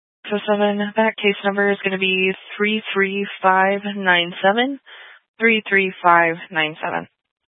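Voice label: Police Dispatch, Scanner audio recording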